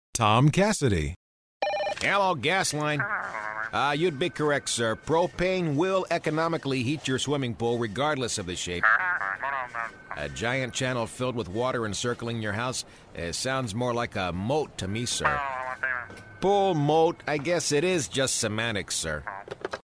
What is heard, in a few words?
OFFBEAT